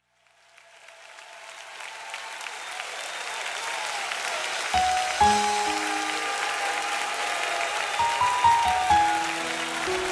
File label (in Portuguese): IRMÃ MAS GOSPEL